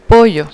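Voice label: "pollo", Spanish; fonetica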